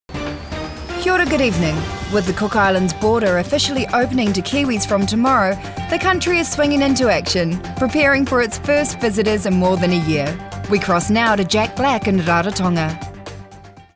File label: News Anchor